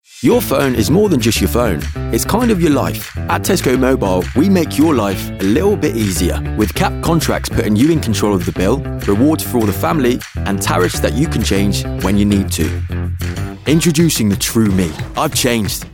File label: Commercial